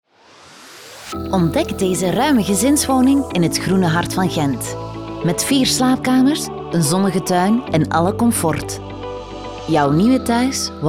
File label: flemish real estate; flemish voice actors